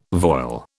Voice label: "voile", British